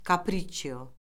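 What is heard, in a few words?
"kapriççio", Azerbaijani; Orfoqrafiya Lüğəti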